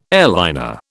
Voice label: "airliner", British